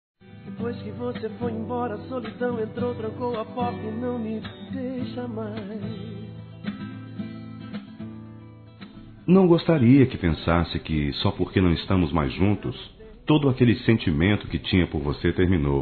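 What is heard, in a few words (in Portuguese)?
ROMANTICA P EX, Telemensagens, Românticas masculino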